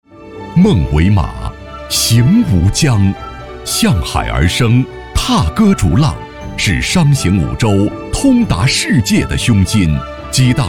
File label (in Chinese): 男 城市宣传; 温州